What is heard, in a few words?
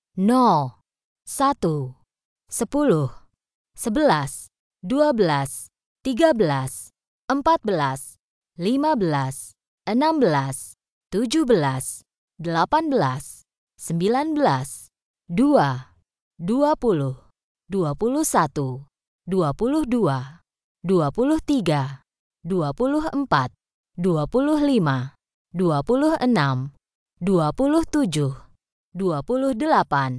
Dealer Voice, sk, Roulette; real Dealer European Roulette; id